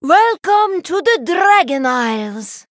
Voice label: Female Draenei